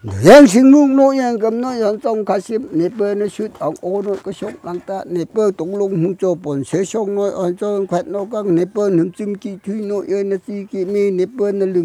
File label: phrase not like chant